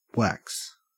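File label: "wax", Australian English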